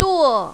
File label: "duo4", mandarin, female